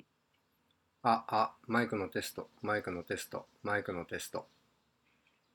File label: microphone test mac